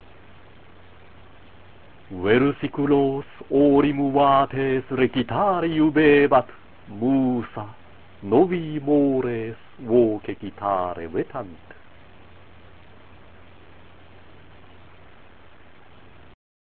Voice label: Recitatio, latin